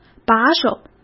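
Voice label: "把手", Mandarin Chinese; hsk; chinese flashcards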